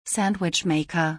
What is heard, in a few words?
Sandwich maker in German